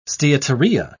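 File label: "steatorrhea", British English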